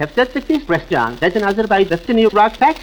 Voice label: havesfesnee resjon jesonee val destiny rock fat, gibbrish; Voice